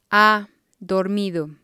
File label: "ha dormido", spanish